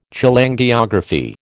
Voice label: "Cholangiography", American